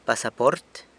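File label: "pasaport", passport, San Lucas Quiaviní Zapotec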